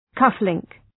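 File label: "cufflink", English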